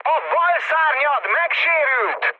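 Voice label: Hungarian left wing mood high, speech; War Thunder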